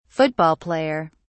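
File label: "football player", British English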